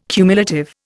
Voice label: "cumulative", Indian